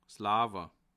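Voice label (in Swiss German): "lawer", Bas Rhin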